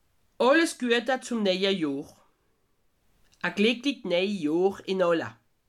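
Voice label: neia johr, Haut Rhin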